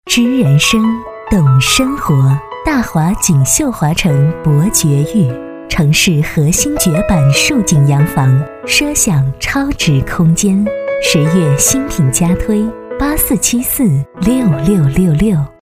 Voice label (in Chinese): 女 广告 知性 品质 配乐成品; 锦绣华城